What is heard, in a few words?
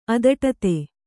"ಅದಟತೆ", Kannada